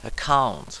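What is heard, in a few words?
"account", English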